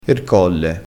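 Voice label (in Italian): "colle", dialettale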